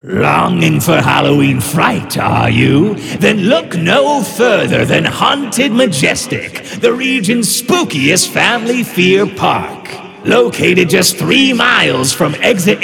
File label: Radio Commercial; Haunted Majestic